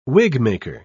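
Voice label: "wigmaker", British English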